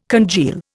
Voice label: "congeal", Indian